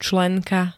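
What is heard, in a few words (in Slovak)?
"členka", Slovak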